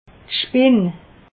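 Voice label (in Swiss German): "spinn", Alsatian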